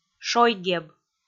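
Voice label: "шойгеб", Komi, female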